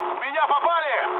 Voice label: aircraft damaged, mood med, speech ru